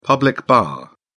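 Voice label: "public bar", British English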